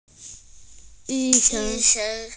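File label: "yi1sheng1", Mandarin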